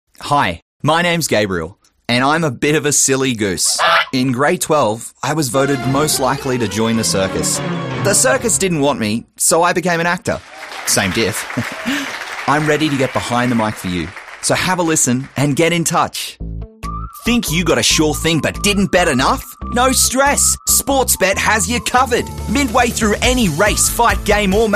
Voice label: Commercial & Corporate Narration, Voice Over Artists Male